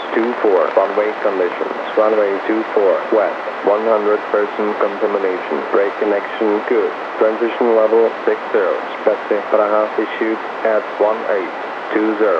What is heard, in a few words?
LKPR ATIS 122,15MHz